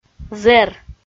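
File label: "зэр", Komi, female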